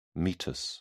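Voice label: "meatus", British English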